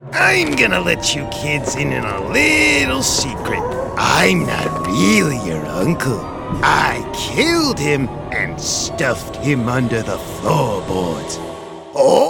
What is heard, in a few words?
Animation